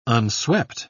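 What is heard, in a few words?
"unswept", British English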